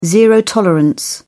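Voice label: "zero tolerance", British English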